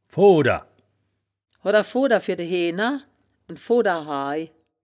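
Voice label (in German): "fooder", wittlicher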